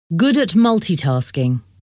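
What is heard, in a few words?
"good at multitasking", English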